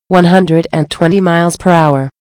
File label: Speed Limit 120 mph, HU voice female; Igo 8